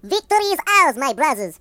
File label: "Victory", Russian; hedgewars